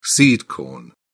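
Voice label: "seed corn", British English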